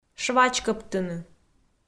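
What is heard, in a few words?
"швачкӧбтыны", Komi, female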